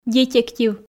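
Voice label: "детектив", Komi, female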